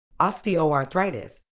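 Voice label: "osteoarthritis", medical English, British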